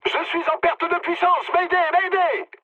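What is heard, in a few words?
French damaged engine mood med; War Thunder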